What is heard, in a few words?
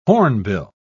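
"hornbill", British English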